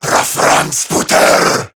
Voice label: Player Spotted, Male, Battle Barks; Dialog; Warframe; CorruptedGrineerMale